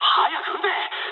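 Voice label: Japanese attack position mood low; War Thunder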